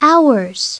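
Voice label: "hours", English; SoftKey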